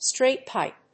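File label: "Straight pipe", English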